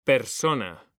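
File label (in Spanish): "PERSONA", Spanish; mekanta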